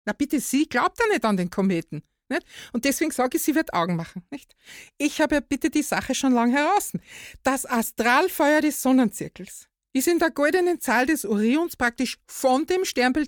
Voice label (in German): dialekt